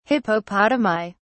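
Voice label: "hippopotami", British English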